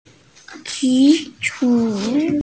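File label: "zi3 shu3", Mandarin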